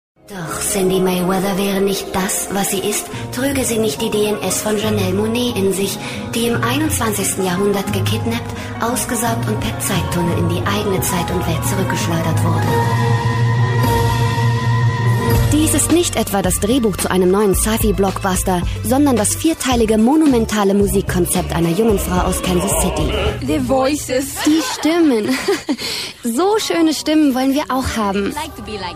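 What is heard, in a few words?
german female commercial; voice demos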